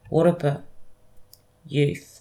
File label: "wurrpa" youth